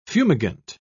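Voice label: "fumigant", British English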